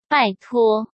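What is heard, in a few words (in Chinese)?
"拜托", Mandarin Chinese; chinese-flashcards; hsk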